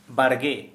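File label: Santali, male, vegetable garden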